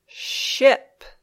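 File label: "ship", English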